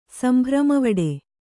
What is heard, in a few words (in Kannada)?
"ಸಂಭ್ರಮವಡೆ", Kannada